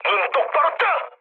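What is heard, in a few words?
Korean player friendly fire mood med; speech; War Thunder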